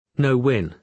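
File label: "no-win", British English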